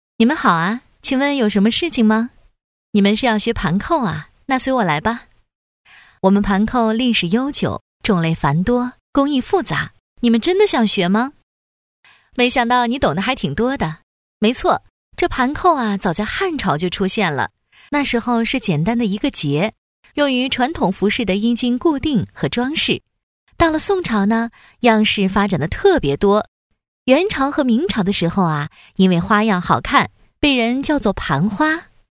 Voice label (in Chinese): 角色扮演, 姐姐